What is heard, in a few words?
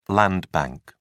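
"land bank", British English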